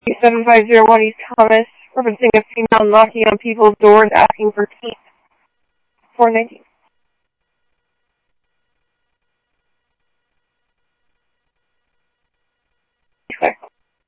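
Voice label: police radio dispatch, ScottsdalePolice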